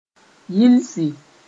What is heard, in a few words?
"yilsi", Mooré